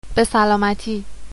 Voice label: cheers, persian